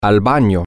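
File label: to The Bathroom, Spanish, male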